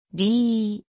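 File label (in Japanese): "り", Japanese; 五十音; 五十音基礎聽解題目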